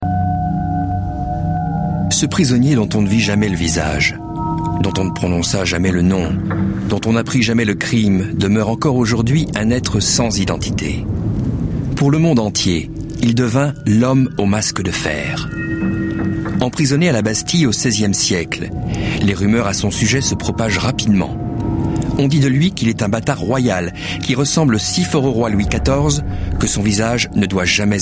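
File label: French narration; TaDa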